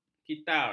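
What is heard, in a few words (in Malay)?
"kitar", Malay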